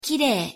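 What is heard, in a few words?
pronunciation "kirei", Japanese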